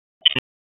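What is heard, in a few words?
Dispatch, p25; WSO DISP 3; wake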